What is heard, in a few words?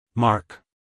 "mark" us male; everyone-can-use-english